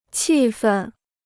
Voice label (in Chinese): "气愤", Chinese